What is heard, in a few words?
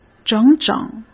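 "整整", Mandarin Chinese; hsk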